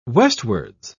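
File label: "westwards", British English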